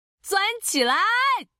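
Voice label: Traditional Chinese; 探奇（守望先锋2）/终极技能; 繁体中文; 鑽起來！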